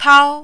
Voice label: "tao1", Mandarin

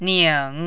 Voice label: "ning3", mandarin

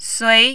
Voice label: "sui2", Mandarin

